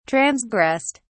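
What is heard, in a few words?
"transgressed", British English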